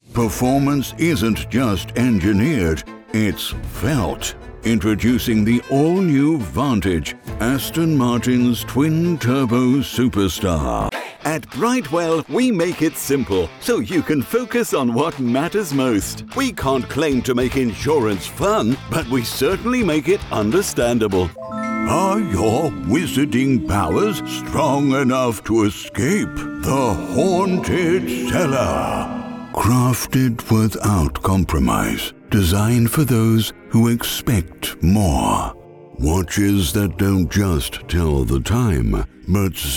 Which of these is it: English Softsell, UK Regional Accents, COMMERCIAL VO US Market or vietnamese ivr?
COMMERCIAL VO US Market